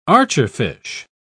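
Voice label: "archerfish", English, British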